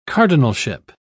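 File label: "cardinalship", British English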